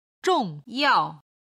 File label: "zhong4yao4", chinese